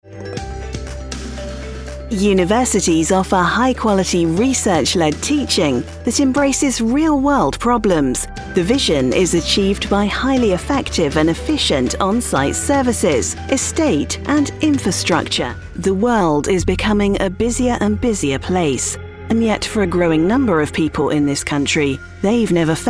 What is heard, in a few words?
narration